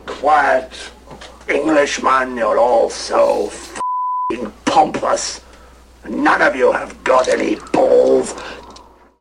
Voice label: death englishman